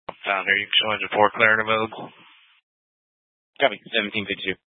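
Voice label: Fire Dispatch, Scanner audio recording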